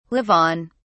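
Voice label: "live on", British English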